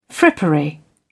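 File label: "frippery", British English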